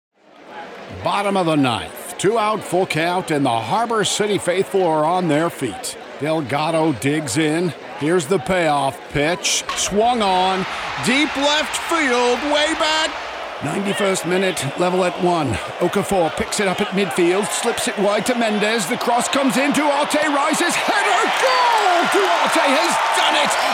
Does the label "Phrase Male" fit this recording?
No